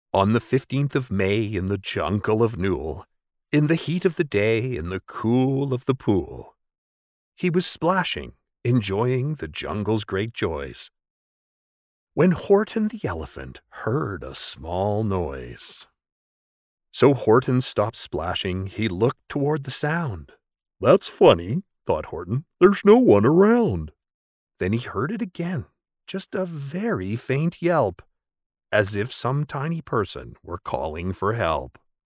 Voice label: Children